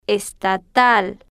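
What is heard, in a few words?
"es-ta-tal", Spanish, female, slow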